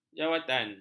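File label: "jawatan", Malay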